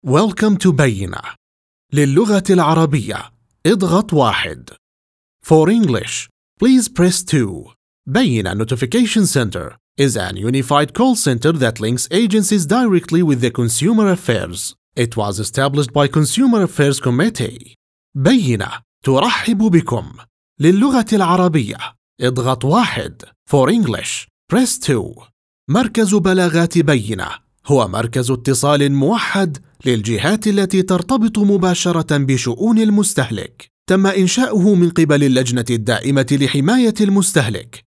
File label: arabic ivr